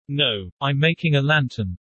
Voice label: fast; VR classroom Test